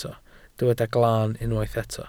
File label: "glaan", welsh